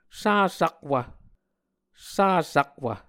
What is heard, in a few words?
"sasakwv", Muscogee